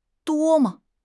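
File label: "duo1 me5", Chinese; HSK; HSK3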